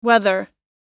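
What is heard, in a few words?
"weather", English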